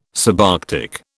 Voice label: "subarctic", British